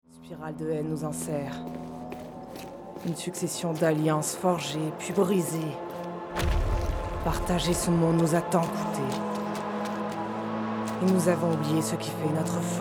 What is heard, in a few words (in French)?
Démo jeux vidéos, french; voice